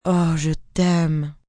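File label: I Love you French F, female, French; Endearment, foreign; Talking Sound Effects; Sound Effects:Voice; VoiceBox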